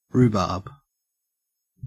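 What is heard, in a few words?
"rhubarb", Australian English; pronunciations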